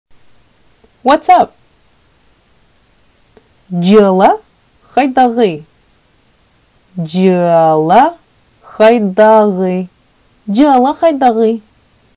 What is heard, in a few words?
Sakha Hows life